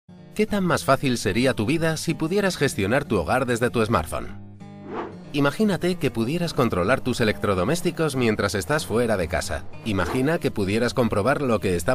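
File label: Spanish Spain male upbeat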